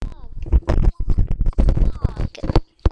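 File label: "bog", spoken word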